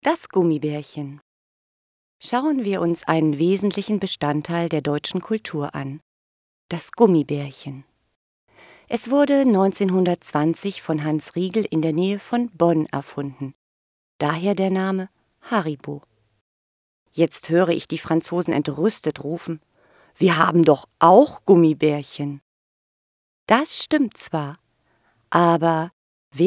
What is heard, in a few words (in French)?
femme allemande